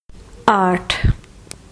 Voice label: "Eight", hindi